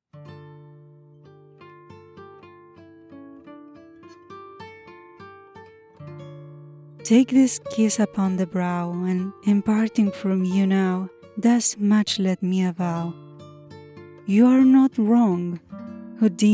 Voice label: poetry accented English, voice-overs